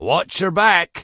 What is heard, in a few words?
Dwarf Male Standard NPC Farewell